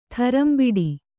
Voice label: "ಥರಂಬಿಡಿ", kannada